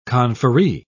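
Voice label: "conferree", British English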